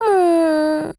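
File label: LOW MOOD, Japanese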